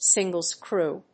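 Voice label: "single screw", English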